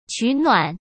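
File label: "取暖", Mandarin Chinese; hsk; chinese-flashcards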